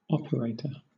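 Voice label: "operator", British English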